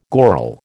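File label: "goral", American English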